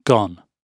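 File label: "gone", British English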